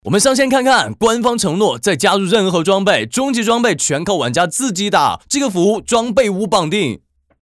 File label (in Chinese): 台湾腔; 玩奇迹